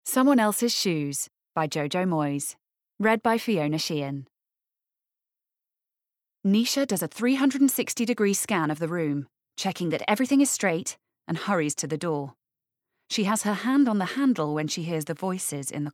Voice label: Bookread; SomeoneElsesShoes